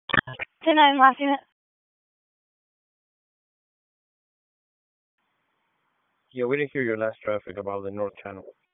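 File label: Raleigh Police Northeast District Dispatch, p25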